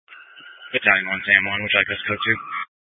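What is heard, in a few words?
Incident Tac 1, Scanner audio recording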